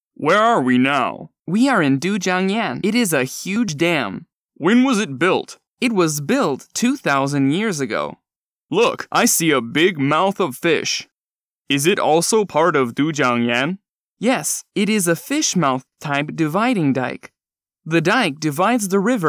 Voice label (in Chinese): 外籍英男, 两人对话, 角色